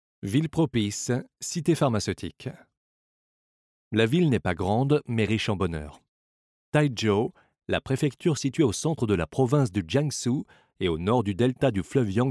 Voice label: 外籍法语男 干音 地区宣传片

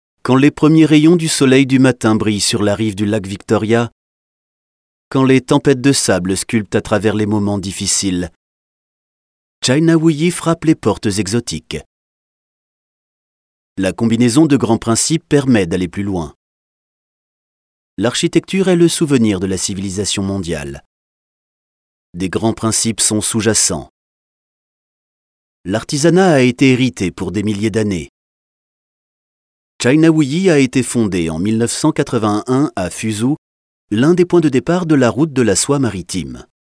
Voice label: 宣传片 法语; 中国武夷形象宣传片脚本

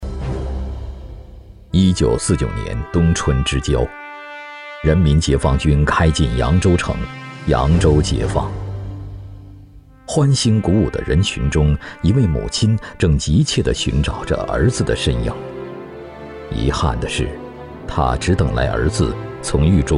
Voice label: 男 纪录片; 无声的功勋

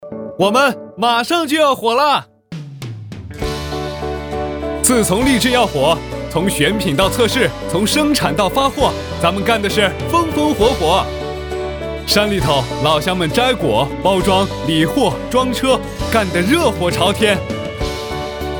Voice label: 男 年轻角色

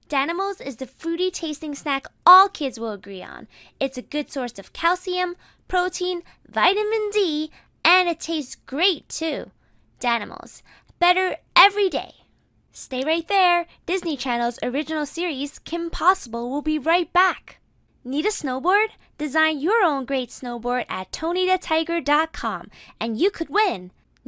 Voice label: 童声女 产品介绍

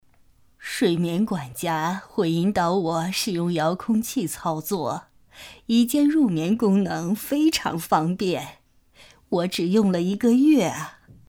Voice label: 角色阿姨; 麒盛文稿

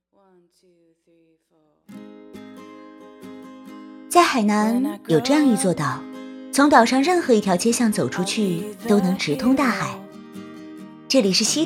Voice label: 独白 年轻自然; 海南

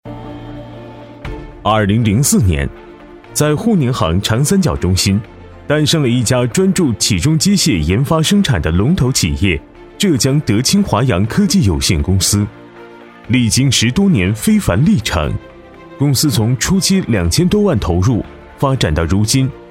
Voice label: 男-专题; 浙江华杨

